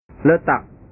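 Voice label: "letak", Indonesian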